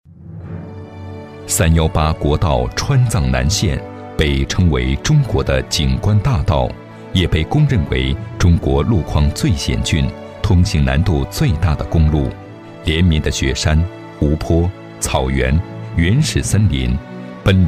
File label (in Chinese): 男 纪录片 磁性 浑厚; 最美318国道